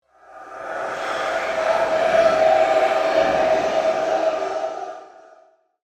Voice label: Swell Ghostly, Production, Element, Swell, Ghostly, Whispers; Ghosts; BlastWave